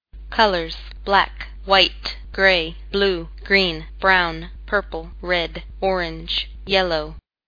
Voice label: english; colors

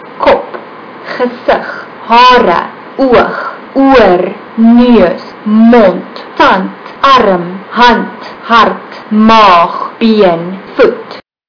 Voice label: afrikaans body

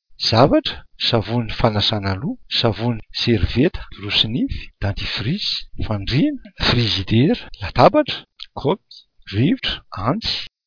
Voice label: malagasy objects

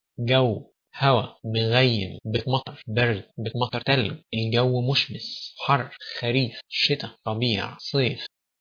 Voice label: egyptian; weather

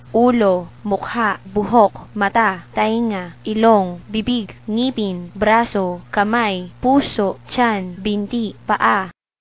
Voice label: filipino

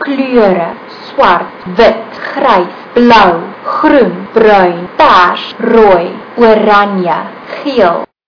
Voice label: afrikaans colors

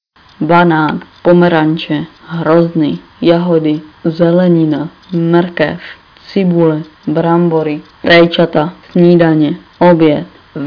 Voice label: czech; food

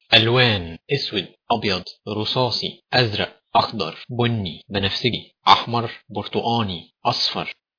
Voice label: egyptian; colors

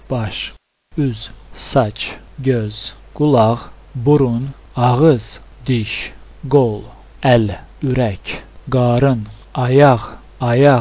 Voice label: azerbaijani

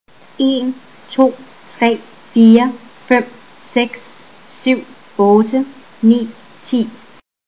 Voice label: danish; numbers

